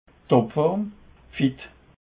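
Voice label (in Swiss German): "topform", Alsatian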